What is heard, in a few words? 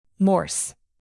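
"morse" English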